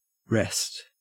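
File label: "wrest", Australian English; pronunciations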